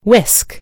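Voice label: "whisk", American English; gcompris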